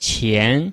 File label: "qian2", Chinese